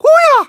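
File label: Voice Emotion Call Name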